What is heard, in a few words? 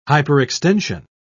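"hyperextension", British English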